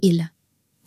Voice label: "il", French